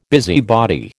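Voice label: "busybody", American English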